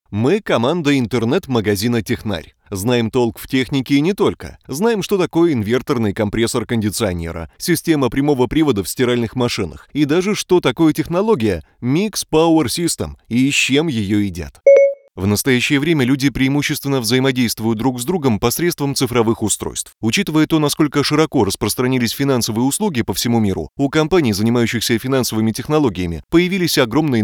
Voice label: russian narration

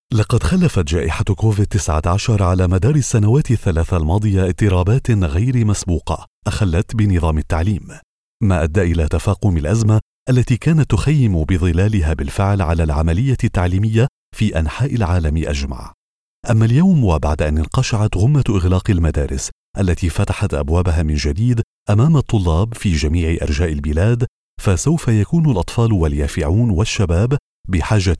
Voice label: arabic narration

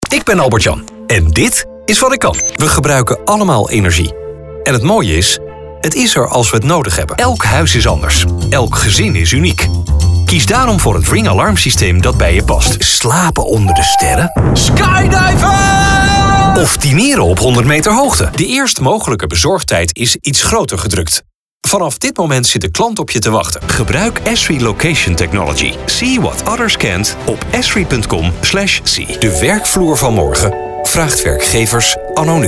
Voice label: Dutch commercial

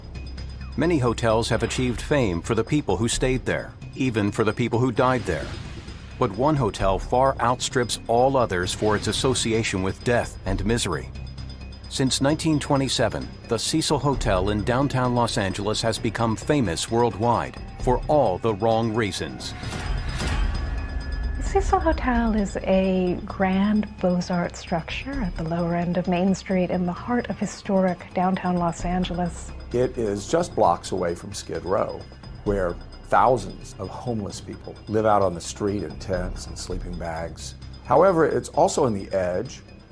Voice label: english american tv shows

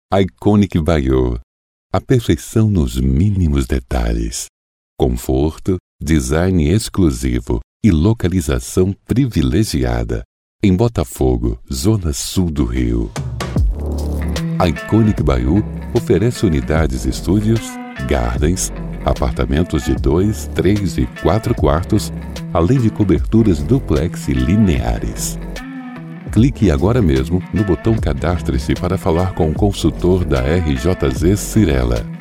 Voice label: portuguese brazilian real estate; Locutor brasileiro, minha língua nativa é o português brasileiro

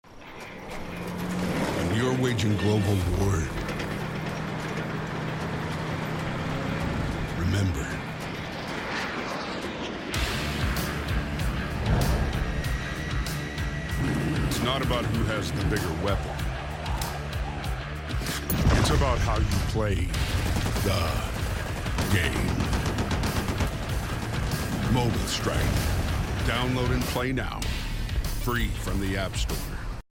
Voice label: english american video games

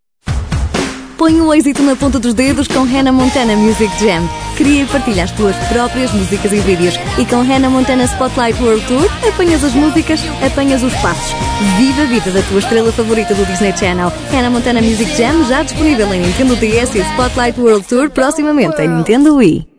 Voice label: portuguese video games

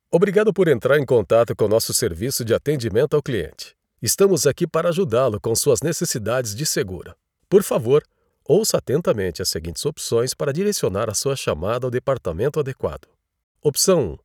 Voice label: portuguese brazilian ivr

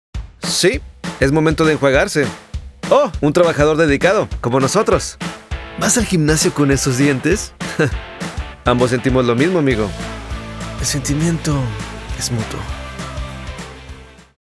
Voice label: spanish latin american narration

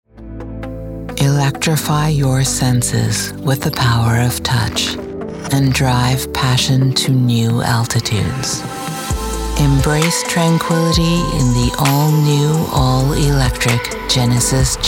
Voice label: english canadian automotive

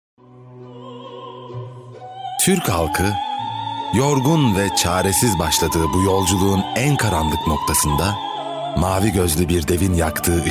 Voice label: turkish corporate videos

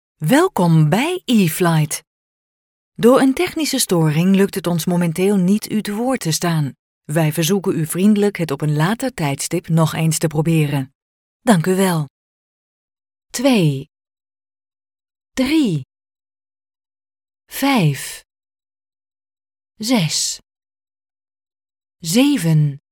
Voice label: dutch ivr

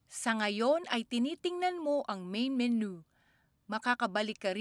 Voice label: Tagalog corporate videos

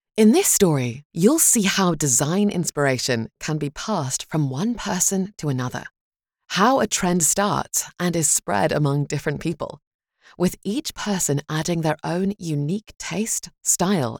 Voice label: english british podcasts

